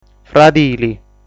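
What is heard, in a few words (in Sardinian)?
"Fradili", Sardinian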